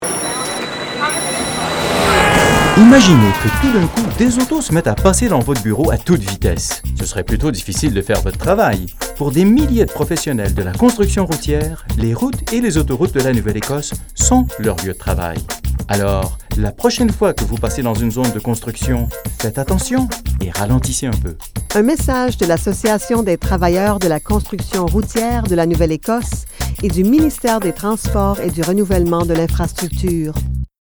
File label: French; Office